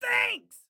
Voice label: Thanks, Excited Guy